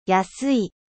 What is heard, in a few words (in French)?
"bon marche", 安い